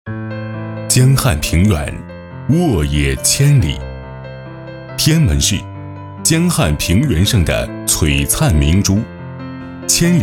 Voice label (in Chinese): 男 企业宣传; 白云边酒业